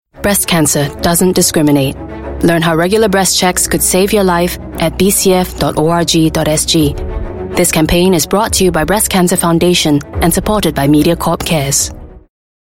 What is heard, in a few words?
Asian English Breast Checks